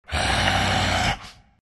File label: anger; Huskar